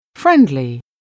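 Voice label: "friendly", English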